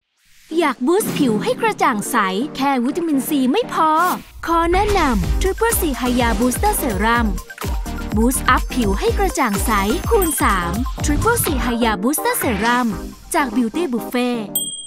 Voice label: TVC Beauty